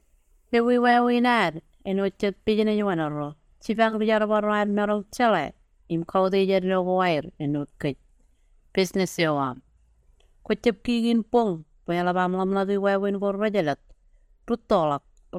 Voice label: Marshallese